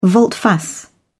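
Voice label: "volte-face", British English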